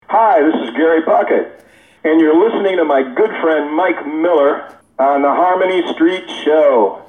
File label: promo